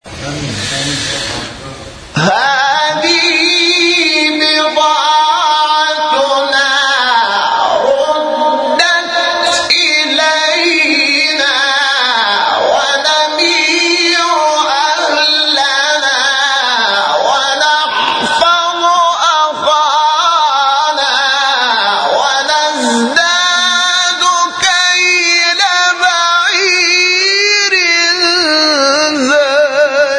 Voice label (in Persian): تلاوتی جذاب سوره مبارکه یوسف; از آیه 65